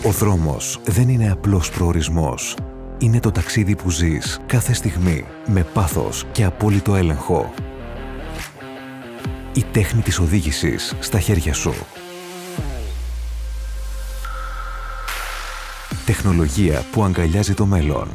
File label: Greek, male, Car